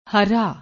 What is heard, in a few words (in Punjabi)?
"hra", Punjabi